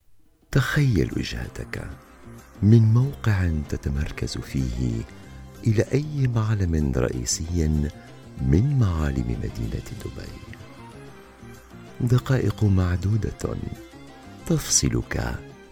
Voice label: arabic commercial